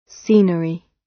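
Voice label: "scenery", British English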